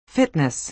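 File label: "fitness", American English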